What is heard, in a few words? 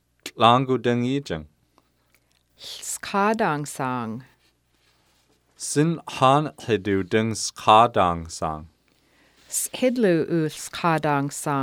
Haida Berry Picking